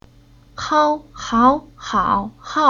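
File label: "Hao", Chinese syllable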